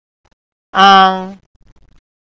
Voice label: "ang" Mandarin